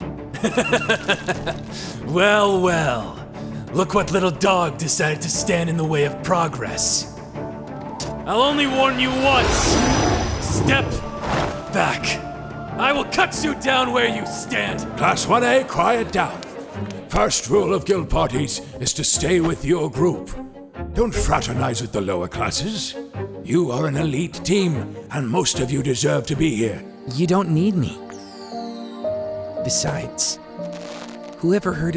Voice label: Anime